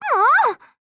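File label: Voice, Japanese; Papasha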